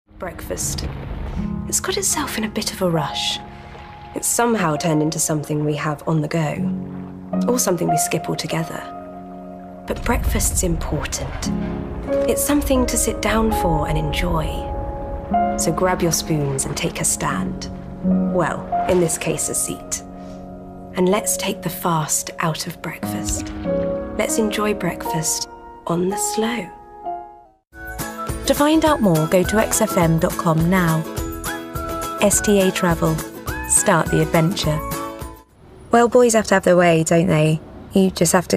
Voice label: commercial